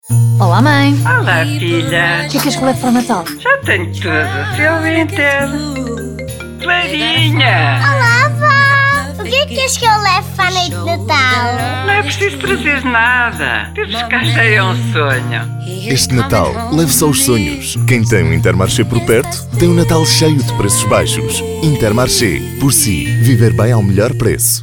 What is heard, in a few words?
Portugal Portugese; Christmas Commercials; Christmas Commercials 2023; Intermache